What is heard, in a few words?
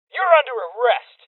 Voices Order To Surrender Male Young; rebel cops 2019 gamerip